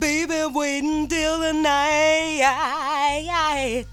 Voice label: BABY NIGHT, FEMALE; AMG; Global Trance Mission VOL-2